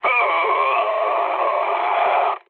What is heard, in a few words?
damaged pilot, mood med, speech; War Thunder